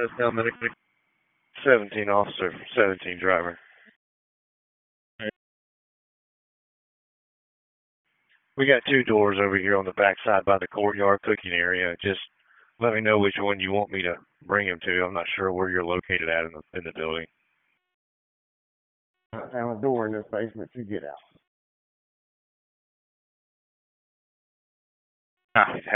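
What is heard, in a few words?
Public Safety Tactical, p25; wake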